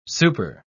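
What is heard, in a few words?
"super-", English, uk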